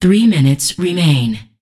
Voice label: Voices Announcer Status